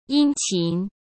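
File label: "殷勤", Mandarin Chinese; hsk; chinese-flashcards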